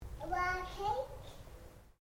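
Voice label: MALE 2 year old CURIOUS; Talking Sound Effects; Talking (Sound Effects:Voice)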